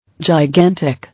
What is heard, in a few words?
"Gigantic", English